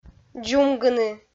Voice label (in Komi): "дзумгыны", Komi